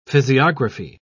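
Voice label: "physiography", British English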